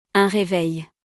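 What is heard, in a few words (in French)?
"Un reveil", French; vocabulaire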